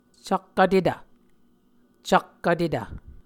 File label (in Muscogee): "cak vtetv", Muscogee